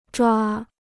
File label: "抓", Chinese